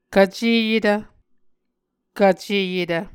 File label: "kvceyetv", Muscogee